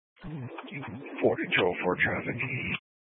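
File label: Sheriff Dispatch, Scanner audio recording archive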